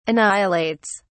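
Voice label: "annihilates", British English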